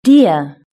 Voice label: "deer", british english

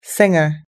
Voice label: "singer", US English

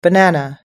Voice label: "banana", US English

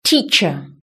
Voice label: "teacher", british english

